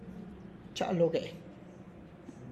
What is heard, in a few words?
I am going to the market, Tlacochahuaya Zapotec; (Spanish) voy al mercado; txa' lo ge'